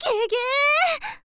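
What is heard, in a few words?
"gege", Japanese, girl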